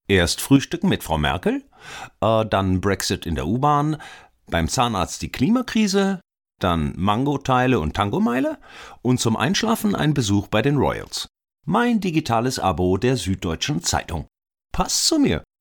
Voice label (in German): Werbung Abo; Sueddeutsche; SDZ